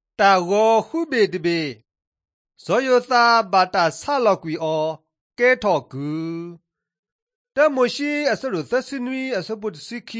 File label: drama, karen sgaw; mighty men of god; bible